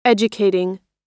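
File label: "educating", American English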